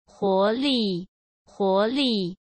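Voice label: "huo2 li4", Mandarin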